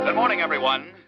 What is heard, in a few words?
Newscaster Good Morning, Everyone